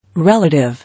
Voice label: "Relative", English